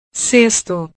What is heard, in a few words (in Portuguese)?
"sexto", portugues